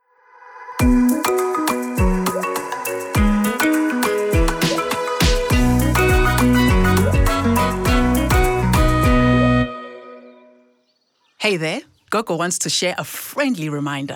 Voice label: Users Containment English; Sanitation; Containment English Soundbites; DWS